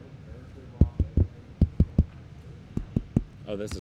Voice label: Male Radio; NYCC 2013